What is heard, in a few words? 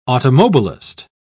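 "automobilist", English, British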